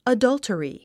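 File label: "adultery", English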